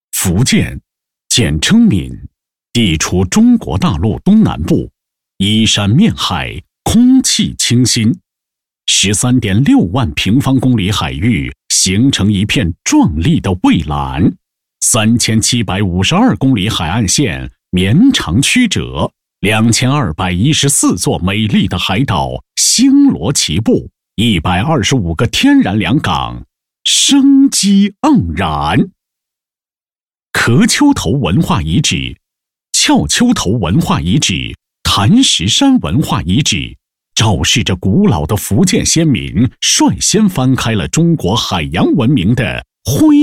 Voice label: 男 专题片 纪录片; 海上福建 大型系列纪录片资料片

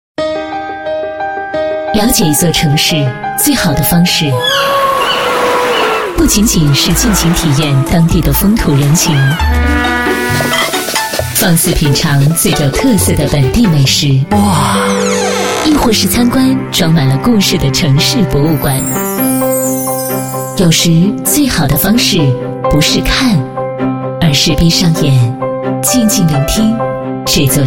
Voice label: 国语女声配音，栏目包装 形象宣传 城市篇

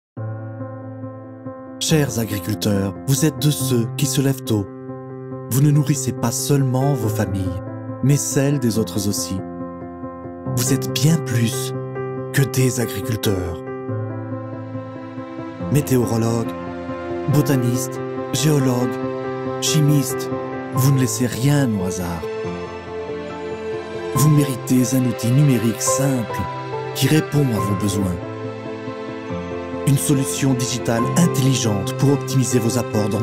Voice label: 外籍法语男 网页宣传片

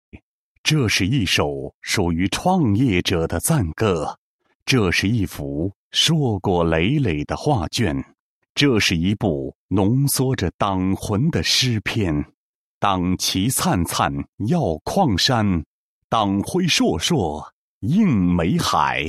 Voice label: 男 男高音 专题; 国语男声配音; 党群工作纪实

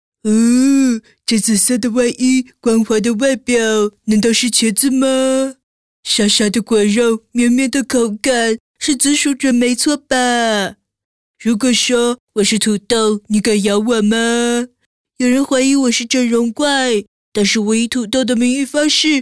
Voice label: 女 模仿, 国语女声配音; 蜡笔小新; 多风格

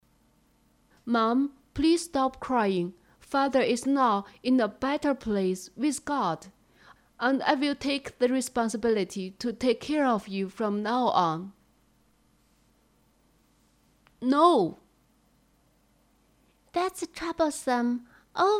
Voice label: 外籍男 英语童声

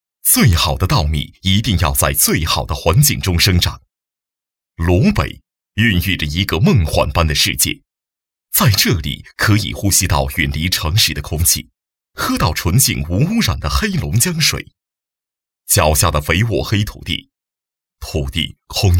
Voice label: 专题, 偏轻松

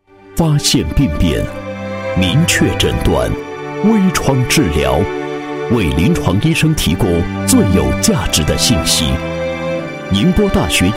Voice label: 男 医院 医院影像科宣传简介

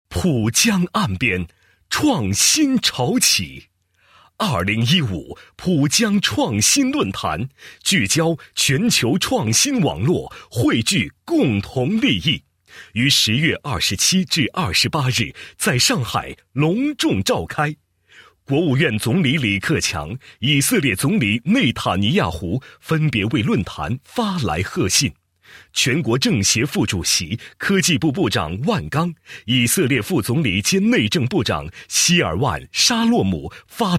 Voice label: 男 专题 宣传介绍; 浦江创新论坛

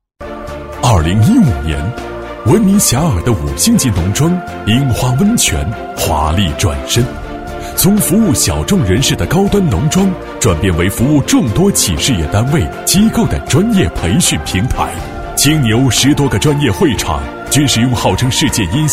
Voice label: 男 教育培训; 五星农庄里的专业培训基地

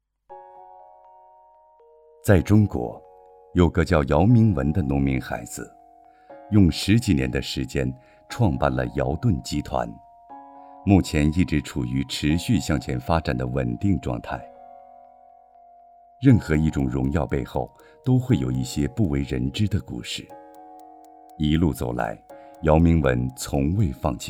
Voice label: 男 人物 样音

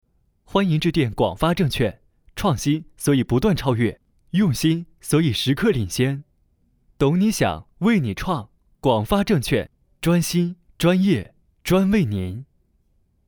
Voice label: 男 男声彩铃 年轻活力

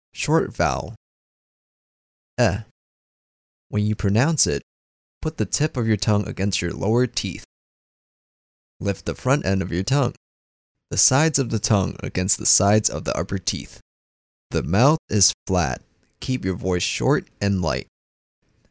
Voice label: 外籍英男 音标讲解

